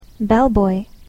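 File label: "bellboy", English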